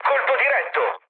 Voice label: bomb success, mood low, speech it; War Thunder

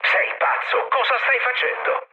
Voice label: player killed ally, mood low, speech it; War Thunder

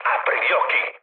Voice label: player friendly fire, mood low, speech it

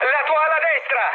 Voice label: right wing, mood med, speech it; War Thunder

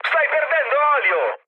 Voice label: damaged oil, mood med, speech it; War Thunder

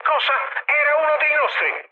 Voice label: player killed ally, mood med, speech it; War Thunder

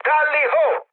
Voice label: enemy detected, mood med, speech it; War Thunder

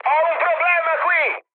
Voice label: aircraft damaged, mood high, speech it; War Thunder

